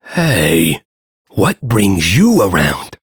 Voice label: Ambient Shopkeeper, Dialog; Duviri